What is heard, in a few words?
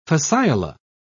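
"fasciola", British English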